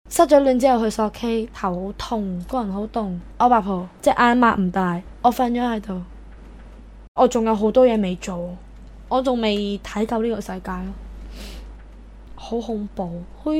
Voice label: cantonese; 向毒品说不2009(突然死亡)